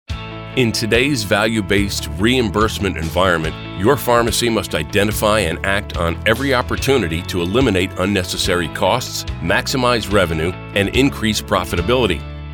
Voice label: Corporate Narration

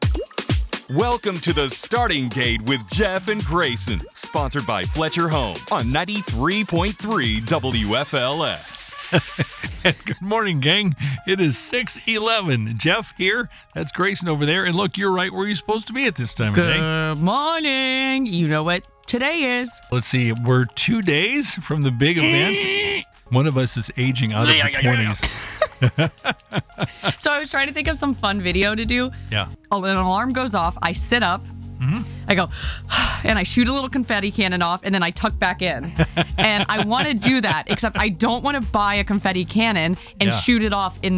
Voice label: Radio Show Opening Break

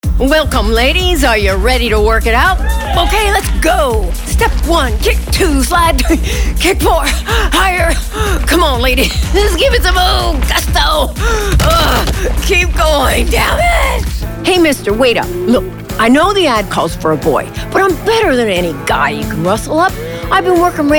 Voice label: vo character

